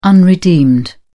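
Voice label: "unredeemed", British English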